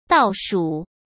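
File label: "倒数", Mandarin Chinese; chinese flashcards; hsk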